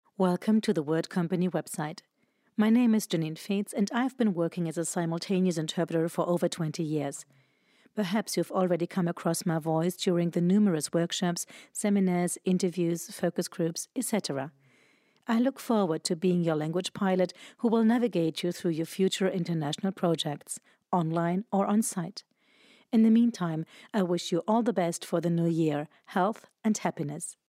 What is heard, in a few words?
English Voice Sample; WordCompany